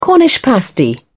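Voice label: "cornish pasty", British English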